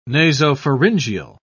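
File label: "nasopharyngeal", British English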